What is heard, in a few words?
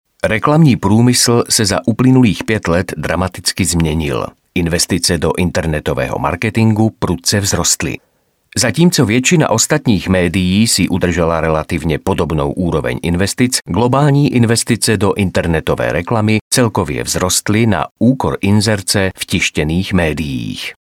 male czech voice over sales